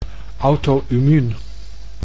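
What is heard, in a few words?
"auto-immuun", Dutch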